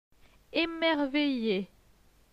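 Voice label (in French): "Emerveille", French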